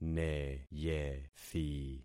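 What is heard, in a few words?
"neyethi", concatenated syllables; Affixing experiment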